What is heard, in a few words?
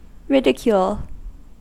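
"ridicule", US English; pronunciations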